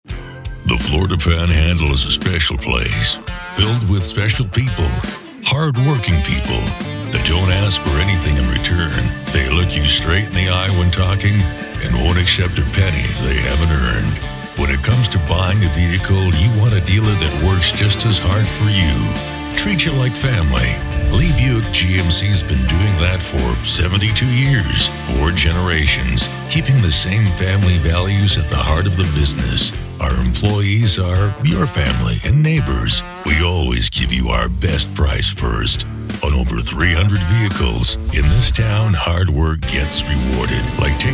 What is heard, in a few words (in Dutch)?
Man 45 55 jaar Low